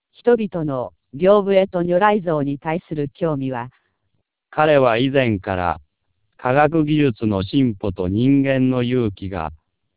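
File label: speech TWELP 2400 bps, Norwegian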